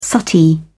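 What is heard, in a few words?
"suttee", British English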